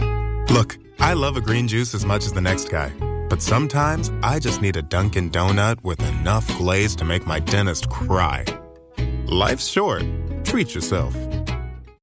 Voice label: Radio Ad Relatable Inviting Best Friend Black Male